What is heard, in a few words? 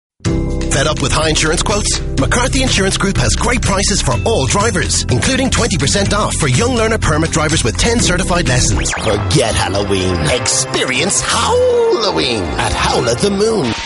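English male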